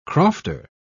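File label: "crofter", British English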